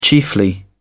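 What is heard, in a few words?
"chiefly", British English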